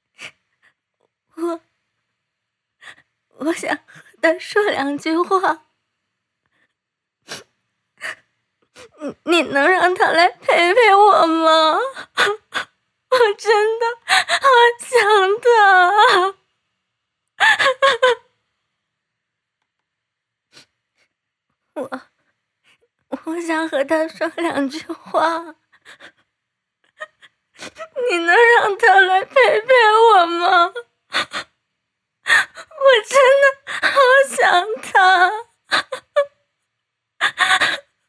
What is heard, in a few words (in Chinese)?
情感哭泣女声